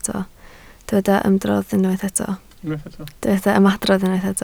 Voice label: "ymadrodd", Welsh

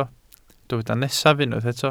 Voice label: "nesaf", Welsh

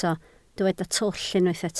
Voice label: "twll", Welsh

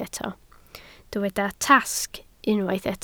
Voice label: "tasg", Welsh

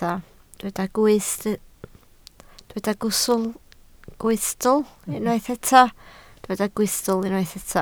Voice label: "gwystl", Welsh

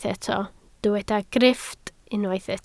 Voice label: "grifft", Welsh

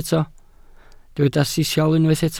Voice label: "sisial", welsh

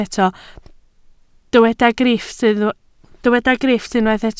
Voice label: "grifft", Welsh

